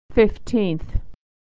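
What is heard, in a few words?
"fifteenth", American English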